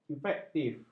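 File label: "efektif", Malay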